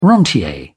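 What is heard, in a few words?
"rentier", English, British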